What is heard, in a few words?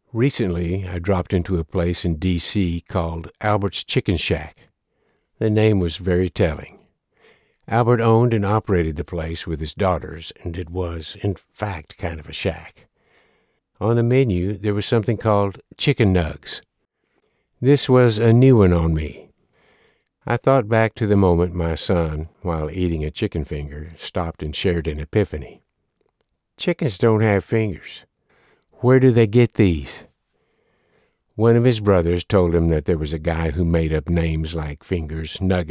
Nonfiction Personal Story Narrative, narration; Chicken Nugs